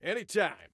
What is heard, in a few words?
Team Anytime, Soldier Male; Soldier Male 02